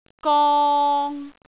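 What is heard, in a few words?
"gong3", Cantonese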